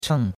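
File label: "cheng", Chinese, fourth tone; Cours-de-Chinois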